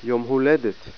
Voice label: birthday, hebrew

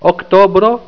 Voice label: "oktobro", esperanto

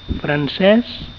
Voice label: "french", catalan

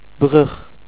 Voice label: "bridge", Dutch

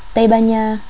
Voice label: "Tây Ban Nha", Vietnamese

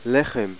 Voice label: bread, hebrew

